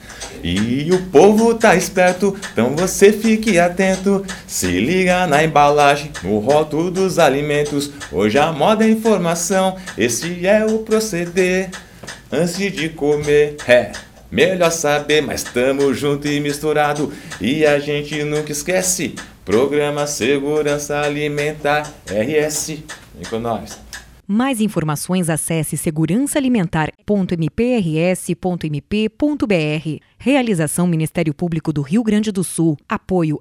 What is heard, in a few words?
RAP; campanhas